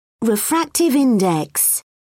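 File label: "refractive index", English, uk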